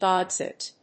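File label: "dog sit", English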